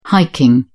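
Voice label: "hiking", British English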